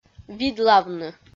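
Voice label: "видлавны", Komi, female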